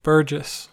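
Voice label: "burgess", US English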